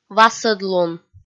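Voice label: "васӧдлун", Komi, female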